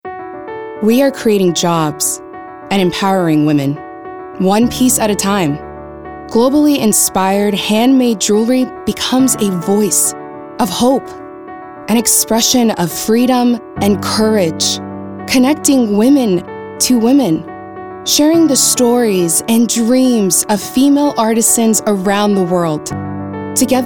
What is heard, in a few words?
Motivational